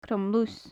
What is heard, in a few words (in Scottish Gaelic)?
"crom-lus", gaelic; Faclan Nàdair